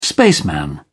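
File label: "spaceman", English, British English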